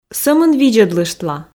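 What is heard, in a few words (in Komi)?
сӧмын видзӧдлыштла, Komi, female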